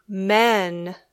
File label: "men", English